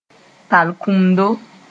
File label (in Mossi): "talkʋmdo", moore